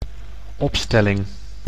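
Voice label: "opstelling", Dutch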